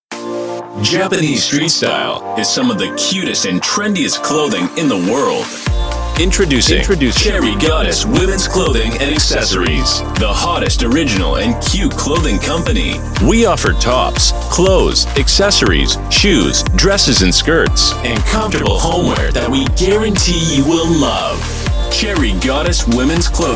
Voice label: commercial Voice Over